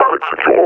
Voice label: announce kill player, combine officer